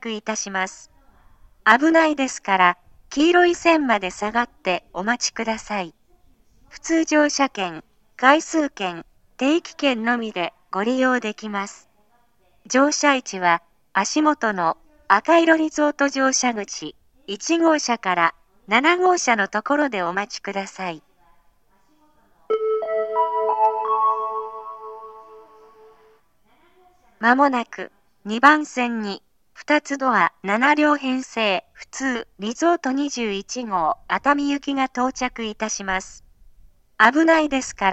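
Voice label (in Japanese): 接近放送 2ドア7両編成 普通 熱海行きの自動放送です。, 合成音声型 (女性）; 2ドア7両編成 普通 リゾート21号 熱海行きの自動放送です。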